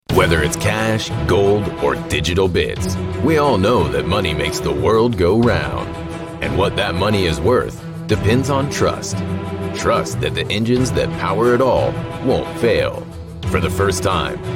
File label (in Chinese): 外籍英男, 金融宣传片